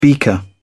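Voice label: "beaker", English, British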